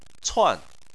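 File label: "cuan" fourth tone, Chinese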